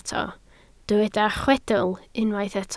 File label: "chwedl", Welsh